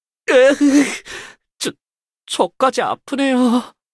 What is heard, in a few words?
Announcer Ally Dying; Sinclair